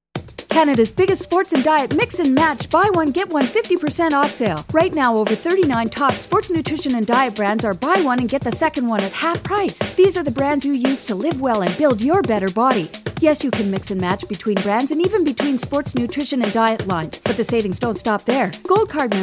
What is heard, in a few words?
voiceover; Ad: GNC BOGO